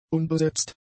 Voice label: "unbesetzt", German; adjectives

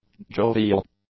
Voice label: "jovial", english; adjectives

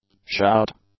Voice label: "shout", English; nouns

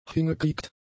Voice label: "hingekriegt", German; adjectives

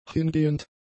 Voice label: "hingehend", German; adjectives

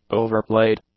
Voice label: "overplayed", English; adjectives

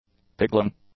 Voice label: "pigling", English; nouns

